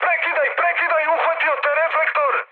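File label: Serbian searchlite mood high; War Thunder; speech srb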